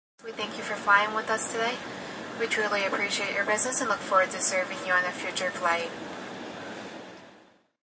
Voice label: Stewardess After Landing, Stewardess makes announcements after airliner lands; Airplane Sound Effects; Sound Effects:Vehicles